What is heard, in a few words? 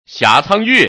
man gang